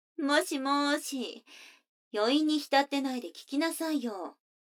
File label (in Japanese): 女の子 台詞